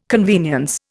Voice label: "convenience", Indian

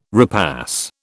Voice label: "repass", British

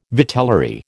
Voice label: "vitellary", American

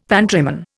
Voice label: "pantryman", Indian; Dictionary

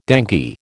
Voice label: "dengue", American

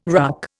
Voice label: "RUC", Indian

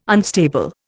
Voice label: "unstable", Indian

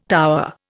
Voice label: "tower", Indian

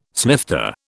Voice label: "snifter", British

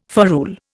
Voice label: "ferrule", Indian

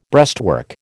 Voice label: "breastwork", American

